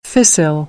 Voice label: "fissile", American; AmericanBritish

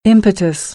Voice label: "impetus", American English

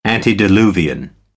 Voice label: "antediluvian", American English

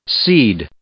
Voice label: "seed" noun

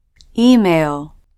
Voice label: "emai-oh", English

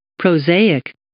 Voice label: "prosaic", English

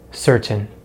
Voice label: "certain", English; Consonants in American English; easypronunciation

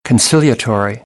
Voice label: "conciliatory", American; AmericanBritish

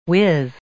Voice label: "whiz", English

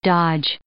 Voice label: "dodge", English; verb

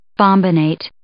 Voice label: "bombinate", English

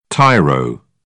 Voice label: "tyro", British English; oʊ - əʊ

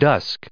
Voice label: "dusk", English

